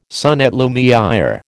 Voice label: "son et lumiÊre", American